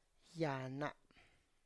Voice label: "ya na", my wrist

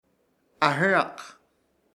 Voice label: "ah̃ɨrak" companero novia, Ishir Chamacoco

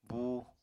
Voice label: "búu", Apatani

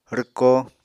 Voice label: "rwko", Apatani

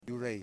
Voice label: "Dogs", Yimas

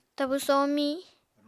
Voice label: "tabu somiñ", Apatani

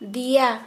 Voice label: "Back", Santali

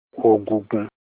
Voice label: bone, Olukumi

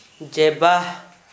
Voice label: "dʒebaʔ", Gta, Dialect: Hill; shoulder

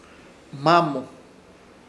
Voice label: "Hmamo", Ishir Chamacoco; invierno

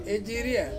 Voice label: "iria", to choose, Ansus

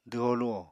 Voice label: "dwho-luho", Apatani

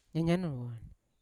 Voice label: "nyanya nowon naik", Yawa

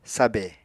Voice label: "sabè", apatani

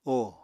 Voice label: "óò", Apatani

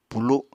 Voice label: "pulu7", Apatani

